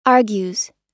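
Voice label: "argues", US English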